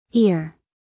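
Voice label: "ear", American English female; everyone-can-use-english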